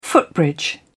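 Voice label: "footbridge", British English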